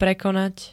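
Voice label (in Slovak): "prekonať", Slovak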